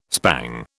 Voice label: "spang", British